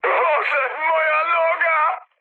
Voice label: damaged pilot mood med, speech pol; War Thunder

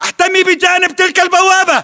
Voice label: order cover gate, battle chatter, english

